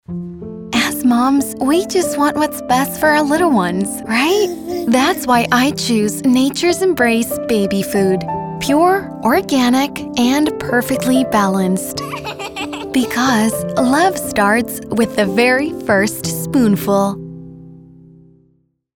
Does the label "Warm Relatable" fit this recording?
Yes